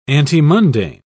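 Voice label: "antemundane", British English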